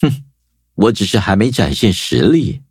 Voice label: defeat, zh-hant; cookie run